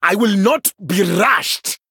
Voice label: Male Troll Time; en

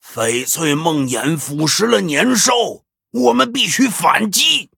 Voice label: Male Dryad Picked; zh

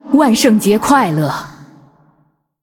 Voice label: Female Ghost HAPPY HALLOWEEN, zh

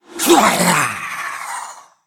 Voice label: Male Skeleton Death; en

